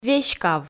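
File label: "веськав", Komi, female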